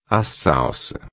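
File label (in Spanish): "salsa", Spanish; alimentos; verduras legumbres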